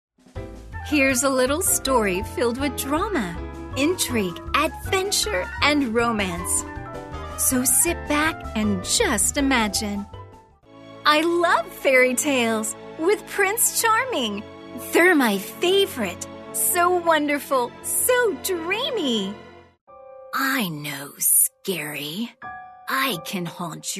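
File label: English, US, female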